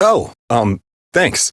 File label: Citizen Male, en; Citizens